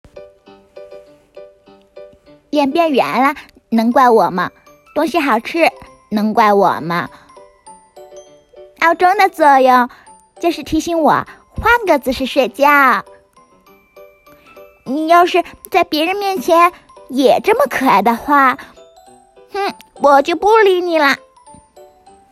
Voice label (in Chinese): 萝莉音